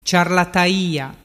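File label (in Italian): "ciarlataia", dialect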